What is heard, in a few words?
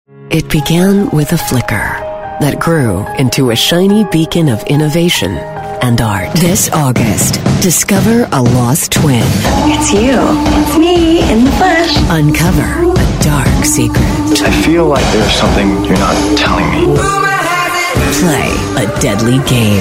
women promos